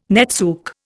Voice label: "netsuke", Indian

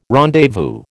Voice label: "rendezvous", American

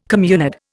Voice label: "communard", Indian

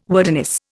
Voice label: "wordiness", Indian

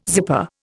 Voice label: "zipper", Indian

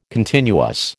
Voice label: "continuous", American

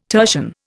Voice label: "tertian", Indian

